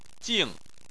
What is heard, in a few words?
"jing4", Chinese